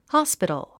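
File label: "hospital", English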